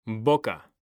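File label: "BOCA", Spanish, male; mekanta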